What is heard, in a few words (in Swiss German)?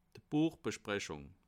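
"buechbsprechung", Alsatian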